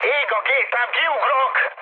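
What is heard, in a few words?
Hungarian on fire mood high, speech; War Thunder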